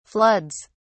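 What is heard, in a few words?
"floods", British English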